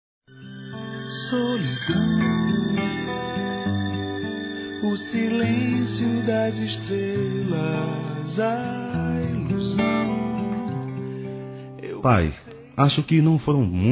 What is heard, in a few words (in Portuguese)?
MENSAGEM P PAI M, Telemensagens; masc; Telemensagens 2017